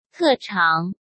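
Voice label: "特长", Mandarin Chinese; hsk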